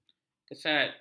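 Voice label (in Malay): "kesat", Malay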